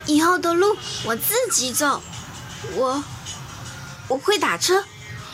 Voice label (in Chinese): 萝莉音